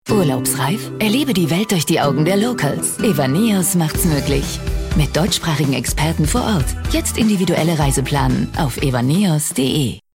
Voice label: TV Spot; Evaneos